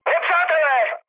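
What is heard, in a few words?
enemy detected, Czech, mood med; War Thunder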